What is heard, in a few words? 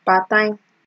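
"part time", Nigerian Pidgin, female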